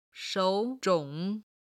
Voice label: "shou3 zhong3", Chinese

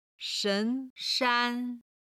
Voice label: "shen2shan1", Chinese

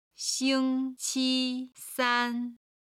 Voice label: "xing1 qi1 san1", chinese

